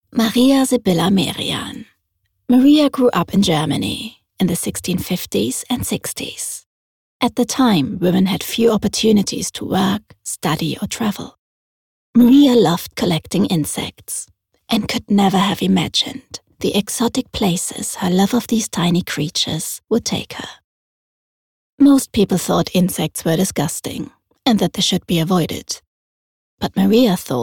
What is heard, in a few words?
EN voiceover; Maria Sybilla Merian